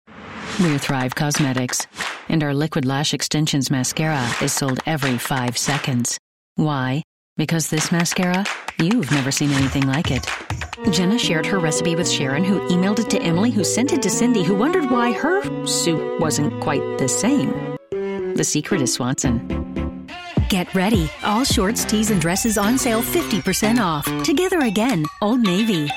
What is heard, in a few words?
F ENG